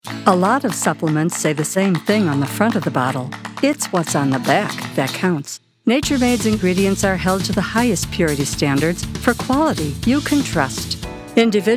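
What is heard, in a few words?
Commercial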